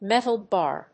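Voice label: "metal bar", English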